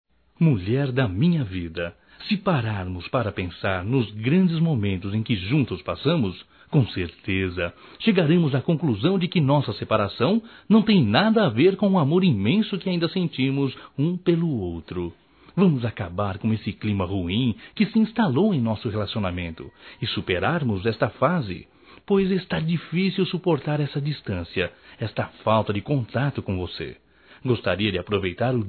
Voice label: DIA DOS NAMORADOS RECONCILIAÇÃO (M)

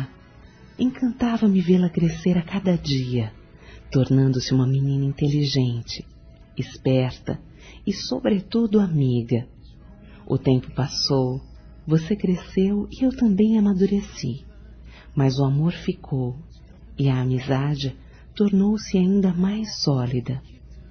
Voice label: HOMENAGEM À SOBRINHA F; fem

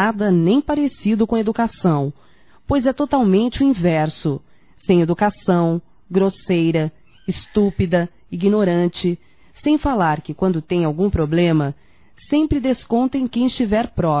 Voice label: Gente mal educada mulher para Mulher Feminino, Broncas; Telemensagens 2017

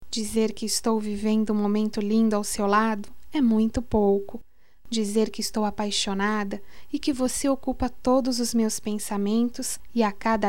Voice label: Amor definitivo, fem; Telemensagens 2017

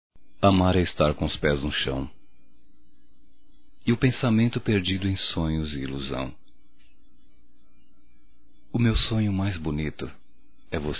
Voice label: ROMÂNTICA - M; Românticas

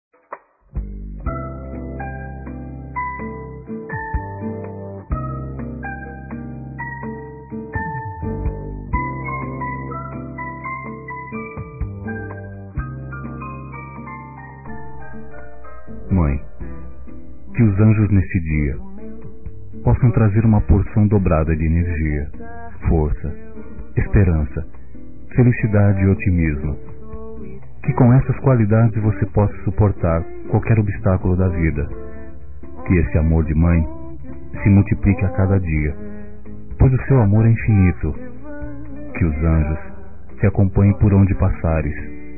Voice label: Dia das Mães Versos Masculino; Telemensagens 2017

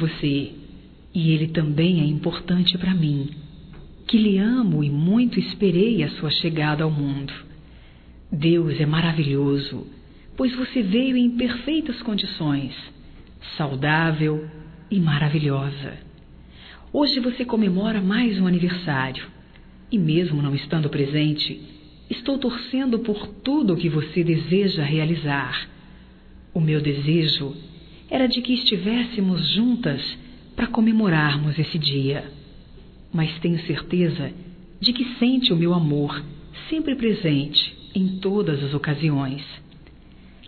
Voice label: FILHA DISTANTE, Telemensagens, Aniversarios, fem; Telemensagens 2017

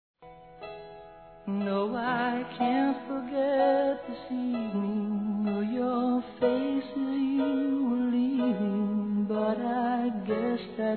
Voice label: AGRADECIMENTO PELO PRESENTE, masc; Telemensagens; Convites e Agradecimentos; Telemensagens 2017

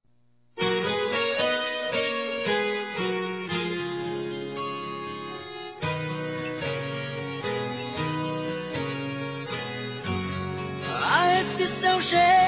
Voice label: Aniversário Sertanejo Romantico Feminino

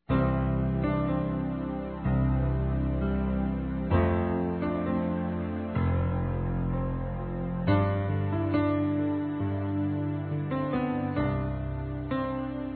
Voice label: PELO SEU ANIV. M; Telemensagens 2017

